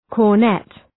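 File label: "cornet", English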